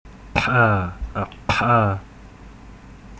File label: Epiglottal ejective